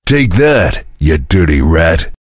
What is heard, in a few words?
cheer; svencoop; voice commands; Duke